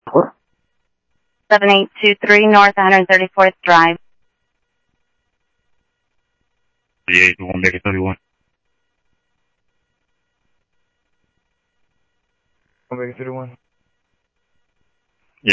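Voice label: Sheriff West County, scanner audio trunk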